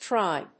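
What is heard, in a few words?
"Trien", English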